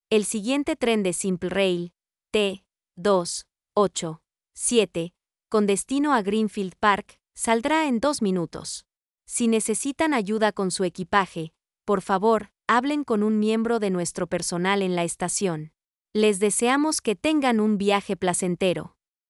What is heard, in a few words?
train Departure, voice announcements